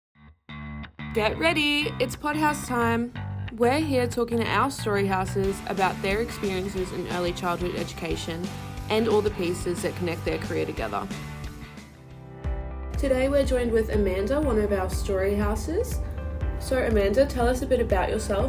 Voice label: Voiceovers; support office